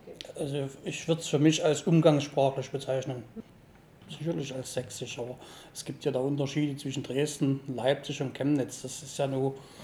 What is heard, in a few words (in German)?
dresden interview, sprachdynamik